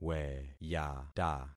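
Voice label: "weyata", concatenated syllables; Affixing experiment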